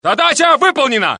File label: Russian; mohaa; dialogue